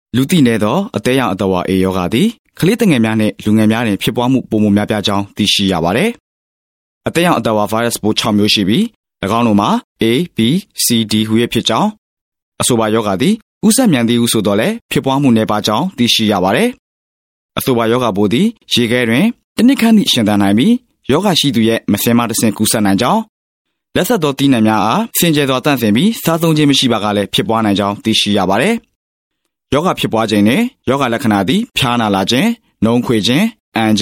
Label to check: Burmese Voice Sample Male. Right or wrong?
Right